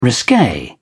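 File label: "risque", British English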